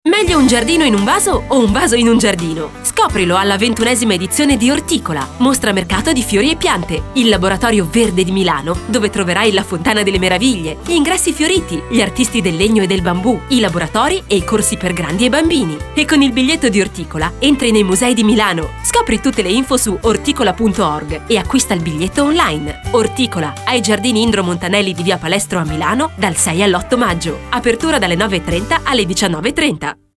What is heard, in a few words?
spot radio; orticola; cuore